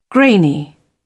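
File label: "grainy", English, British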